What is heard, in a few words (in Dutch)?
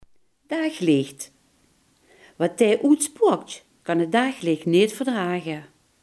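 "daglicht" Limburgish dialect word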